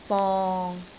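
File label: "bong6", Cantonese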